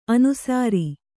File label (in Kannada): "ಅನುಸೌರ", Kannada